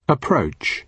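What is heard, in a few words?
"approach", British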